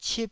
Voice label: "cip1", Taiwanese

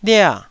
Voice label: "lia4", Taiwanese syllable

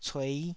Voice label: "cuei5", Taiwanese syllable

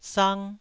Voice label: "sang1", taiwanese

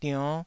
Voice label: "dionn5", taiwanese syllable

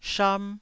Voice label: "sham1", taiwanese Syllables

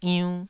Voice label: "iunn2", taiwanese syllables

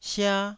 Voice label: "sia1", taiwanese Syllables

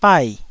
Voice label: "bai4", taiwanese syllables; 台語音節發音檔案

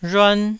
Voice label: "rhuan1", taiwanese

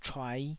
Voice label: "chuai5", taiwanese

